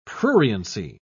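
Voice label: "pruriency", British English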